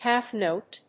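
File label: "half note", English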